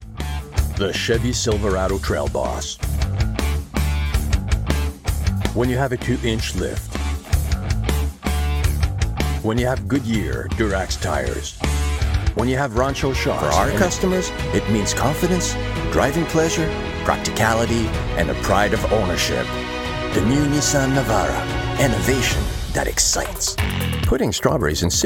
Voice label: Commercial English; Bilingual Voiceover Professional; Voix Hors Champ Bilingue